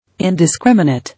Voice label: "Indiscriminate", English